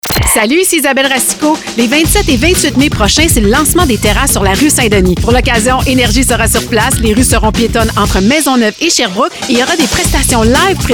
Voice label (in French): Publicité; Quartier Latin